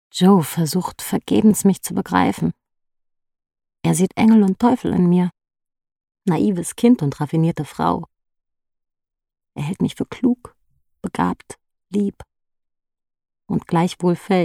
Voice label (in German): Hoerbuch; Tagebuecher Reimann